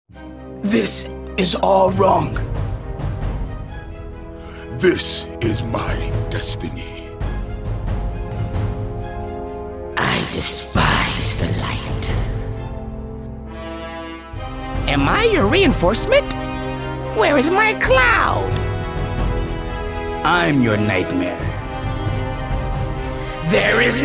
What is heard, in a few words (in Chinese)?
其他 英语 男